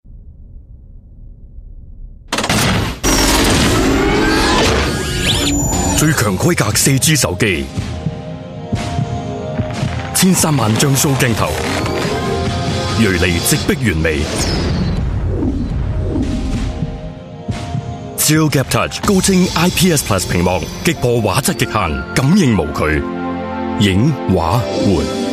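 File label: male Cantonese; LG 無定限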